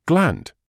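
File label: "gland", British English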